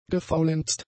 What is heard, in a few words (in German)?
"gefaulenzt", German; adjectives